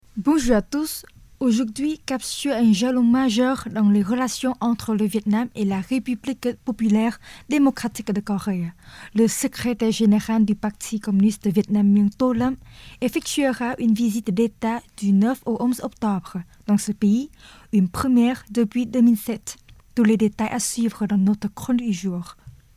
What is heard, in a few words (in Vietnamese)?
Tieng Phap, Voice nu ngot ngao